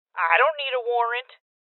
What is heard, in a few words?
Voices Open Safe Female; rebel cops 2019 gamerip